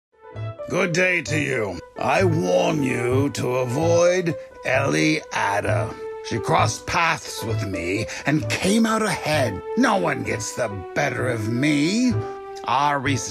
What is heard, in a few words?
radio spot